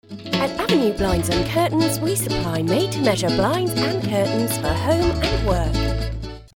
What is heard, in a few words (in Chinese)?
外籍英女 欢快 窗帘广告